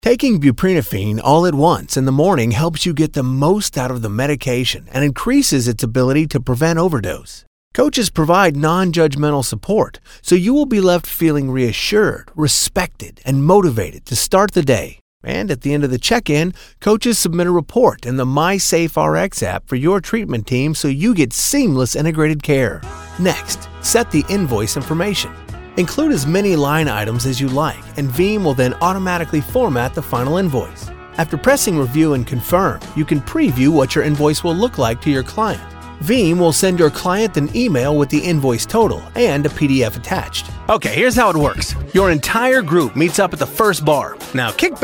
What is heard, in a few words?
Narration